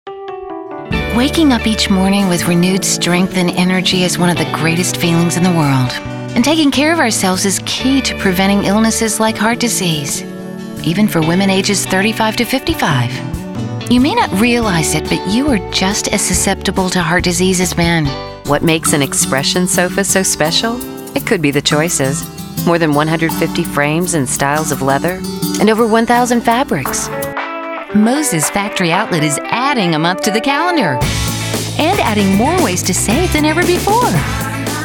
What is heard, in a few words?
commercial